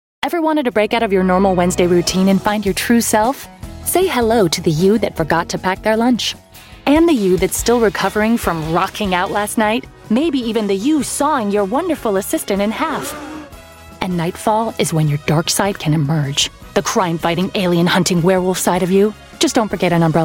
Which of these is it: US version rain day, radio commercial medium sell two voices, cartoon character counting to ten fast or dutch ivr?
US version rain day